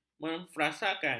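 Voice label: "memfrasakan", Malay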